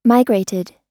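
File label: "migrated", American English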